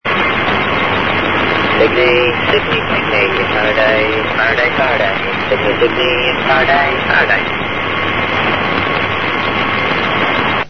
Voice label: usb; bas faraday; signy; antarct